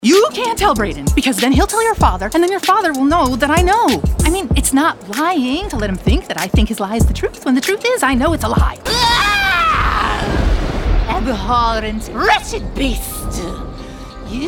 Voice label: Animation